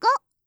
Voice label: "ゴ", カウント

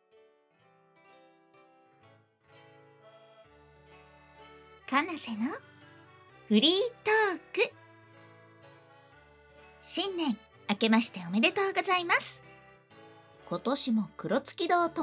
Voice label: フリートーク

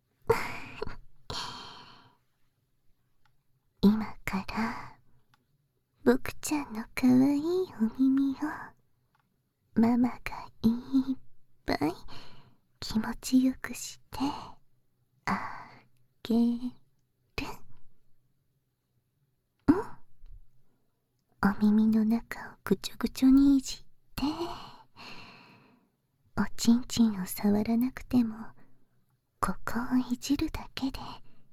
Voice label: 脳内囁き, バイノーラル 効果音なし; 脳内囁きとあまとろ耳触手責めでカウントダウン射精×２

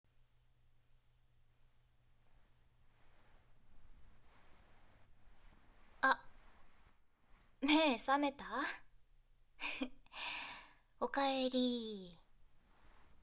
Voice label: 耳かき・ピロートーク; いい夢見てね♪; 効果音あり

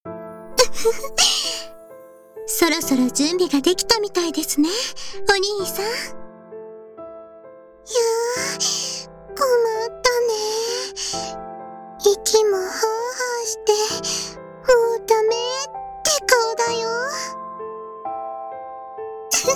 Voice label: 今度はこっちの番です BGMあり

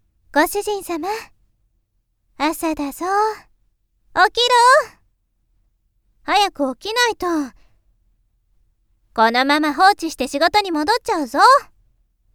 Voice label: ダークエルフのメイドさんのおはようボイス

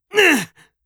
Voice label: 青年 ぐぅぅっ！！

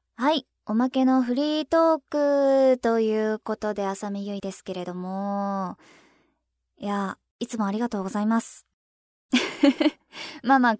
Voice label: フリートーク

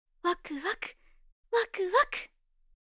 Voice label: 「わくわく、わくわくっ……♪」 ワクワク, オノマトペ; 黒崎オノマトペ

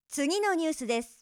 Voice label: 次のニュースです, ナレーション

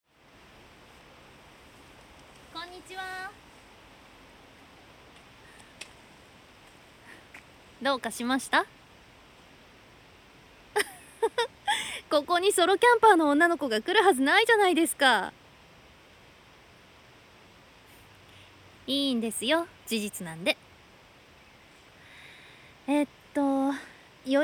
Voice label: キャンプ場、駐車場にて, ASMR

